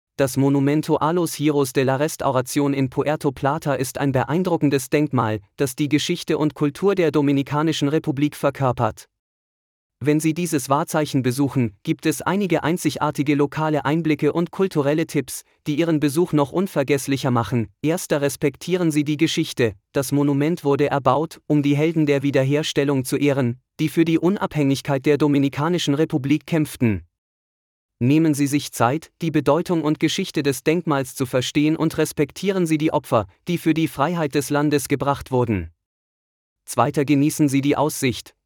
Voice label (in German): local insights de; Monumento a los Héroes de la Restauración, auch bekannt als Monument of Santiago; Viator